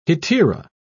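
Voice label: "hetaera", English, British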